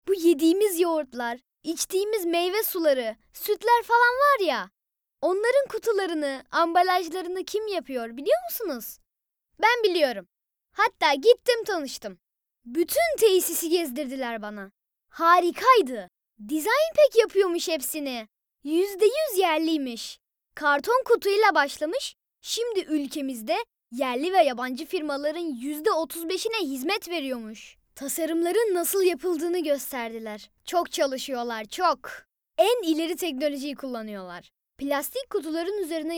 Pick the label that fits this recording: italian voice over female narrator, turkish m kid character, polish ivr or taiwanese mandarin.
turkish m kid character